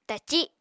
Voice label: "taci", Apatani